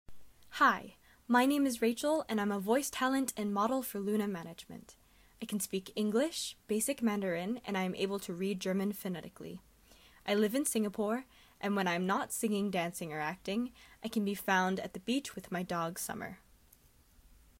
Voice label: English